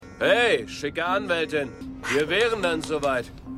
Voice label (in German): Aelterer Mann; The Flight Attendant